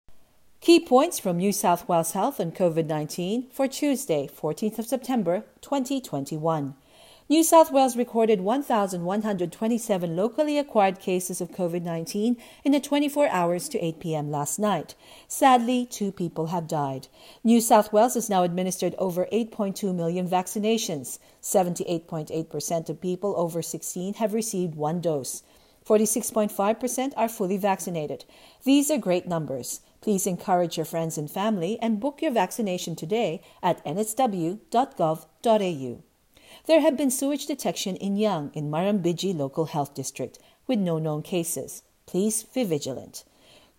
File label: english